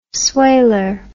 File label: "swailer", English word